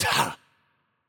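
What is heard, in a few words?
Mexican Spanish hurt light voice; bloom minigame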